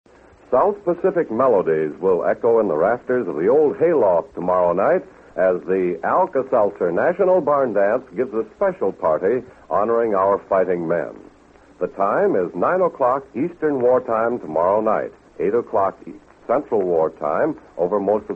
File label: Promo; National Barn Dance Honoring Servicemen